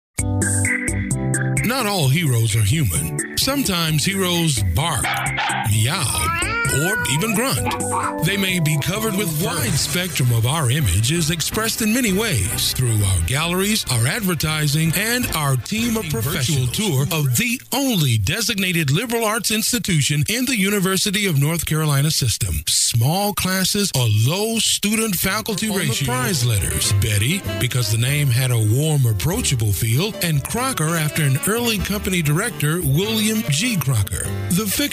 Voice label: Narration Male, Edgy, Big, Urban